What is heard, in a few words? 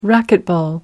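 "racquetball", British English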